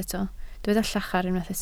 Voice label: "llachar", Welsh